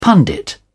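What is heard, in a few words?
"pundit", British English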